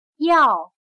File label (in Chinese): "要", chino